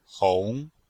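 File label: "hong2", chinese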